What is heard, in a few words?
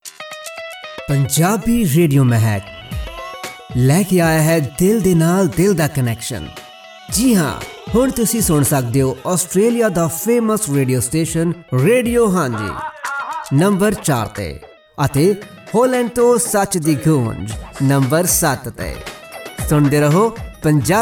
Punjabi Radio; RCA Radio Haanji